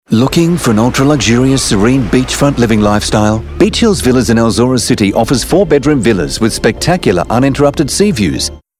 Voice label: voiceover; Al-Zorah